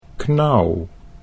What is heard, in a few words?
"knauw", Dutch